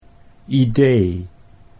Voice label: "idee", Dutch